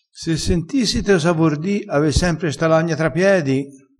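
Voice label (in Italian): "sentissite", dialettale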